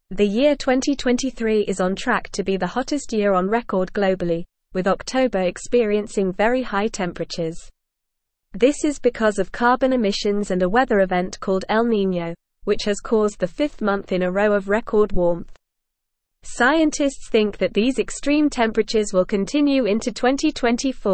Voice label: English Upper Intermediate NORMAL Reading; 2023 on Track to Be Warmest Year on Record; English Newsroom